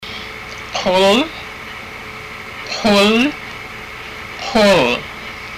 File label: forehead, haida; Kul